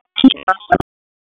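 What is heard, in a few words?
Raleigh Police Northeast District Tactical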